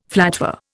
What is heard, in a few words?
"flatware", Indian